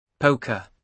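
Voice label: "poker", British English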